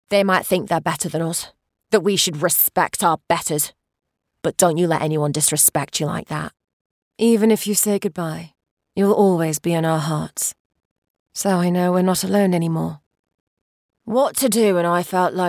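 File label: lancashire voice video game, Lancashire Female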